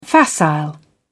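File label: "facile", British English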